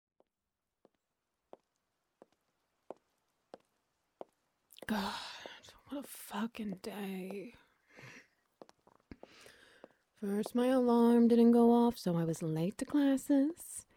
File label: Ambience SFX Wet Noises; Extreme Heat Syndrome